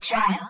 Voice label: trial, dry, friendly female robot voice; Leevaudio